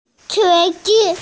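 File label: "qun2zi5", Mandarin Chinese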